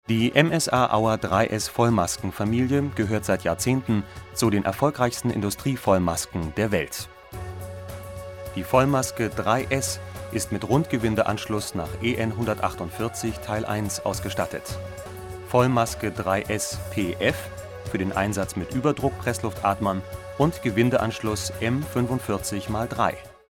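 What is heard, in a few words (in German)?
deutsch, Telefonansagen männlich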